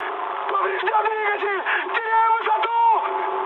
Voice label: damaged engine, mood high, speech ru; War Thunder